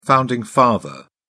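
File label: "founding father", English, British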